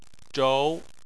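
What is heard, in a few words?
"zhou" Chinese, second tone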